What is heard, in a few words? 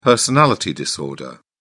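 "personality disorder", British English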